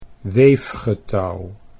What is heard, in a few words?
"weefgetouw", Dutch